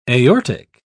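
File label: "aortic", British English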